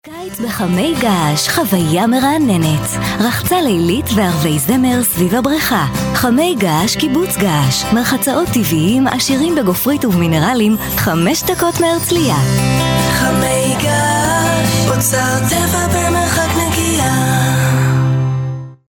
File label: HB F commercial